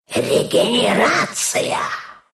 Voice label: regen, ru; Dota 2